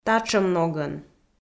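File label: "татшӧм ногӧн", Komi, female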